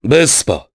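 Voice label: Vox, voices, Japanese; Nicx; Vespa; kings raid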